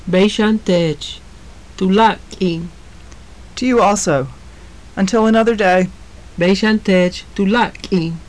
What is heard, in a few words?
conversation, maya; Beyxan teech. Tu láak' k'iin.; To you also. Until another day.; JUNP'IIT MAYA